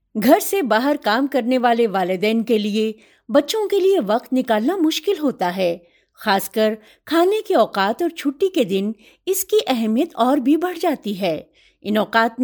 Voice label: Urdu, Female, Adult

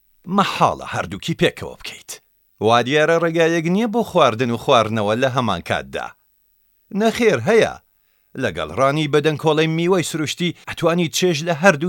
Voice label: Sorani Commercial

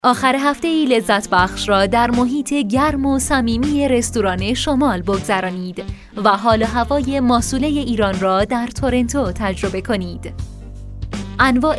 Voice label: Farsi Commercial

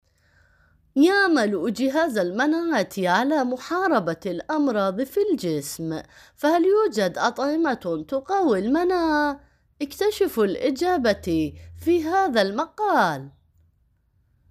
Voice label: Arabic

